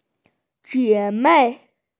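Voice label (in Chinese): "jie3mei4", Mandarin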